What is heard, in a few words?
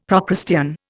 "Procrustean", Indian; Dictionary